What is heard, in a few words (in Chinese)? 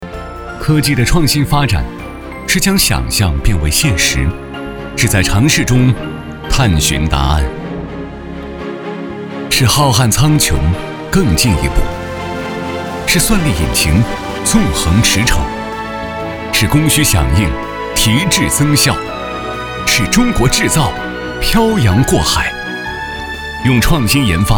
男 专题 篇章总结